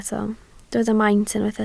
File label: "maint", Welsh